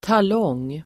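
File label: "talong", Swedish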